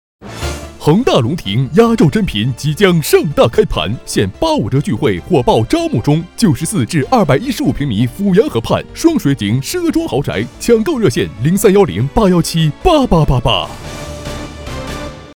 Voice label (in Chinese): 男 广告; 恒大龙庭